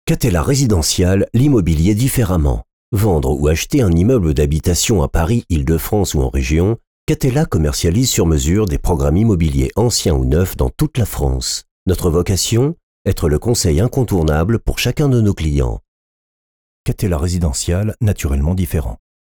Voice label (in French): Voix off; Pub TV / Radio / Corporate / Brand Content